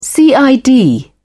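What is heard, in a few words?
"cid", British English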